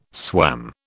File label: "swam", British